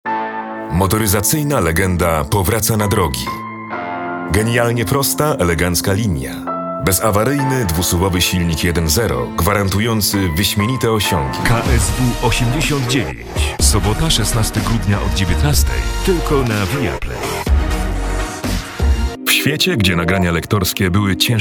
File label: polish commercial; Polen; Ein professioneller polnischer Synchronsprecher